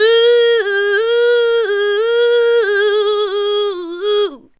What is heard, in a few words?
ADLIBS 90; Ueberschall; Jam Box